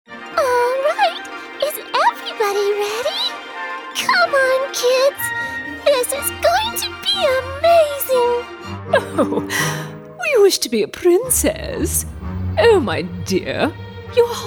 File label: VO animation